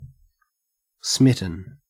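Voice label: "smitten", Australian English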